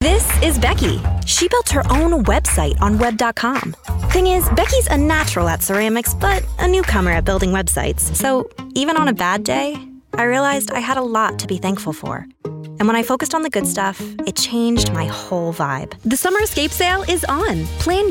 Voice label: Commerical, voiceover